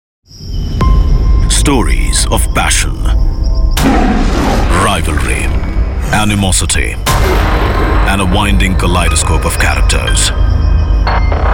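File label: English M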